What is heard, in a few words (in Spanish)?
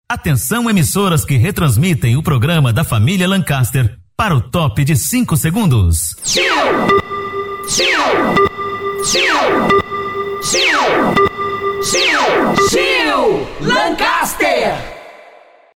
Locutor